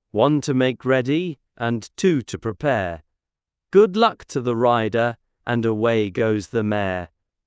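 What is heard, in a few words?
male British, classic rhymes; One to Make Ready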